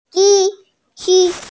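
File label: "si1ji1", Mandarin Chinese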